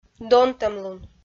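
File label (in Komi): "донтӧмлун", Komi, female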